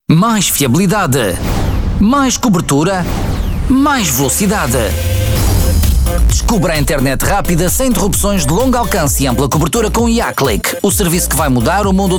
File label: Radio Portuguese